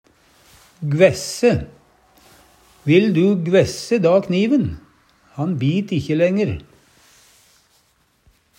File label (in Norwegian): gvæsse, Numedalsmål; Vil du gvæsse da kniven? Han bit ikkje lenger.